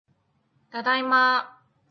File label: Japanese; Japanese Power Level Test